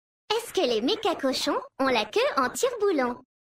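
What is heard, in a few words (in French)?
French Femme Blague; Mecagnome; Cochons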